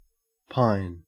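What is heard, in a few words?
"pine", Australian English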